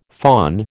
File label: "phon", American